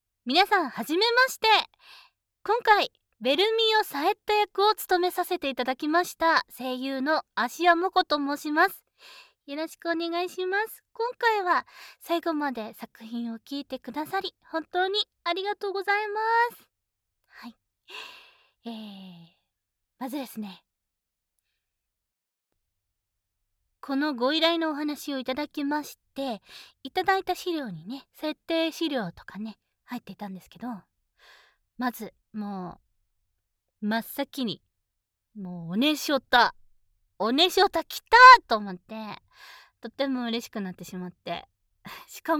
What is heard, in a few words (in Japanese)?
フリートーク